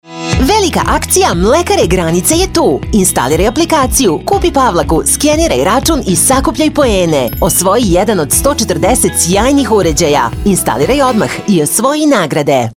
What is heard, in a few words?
Radio Commercials, Serbian, Female Voice; Mlekara Granice